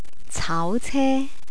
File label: "caauce", Cantonese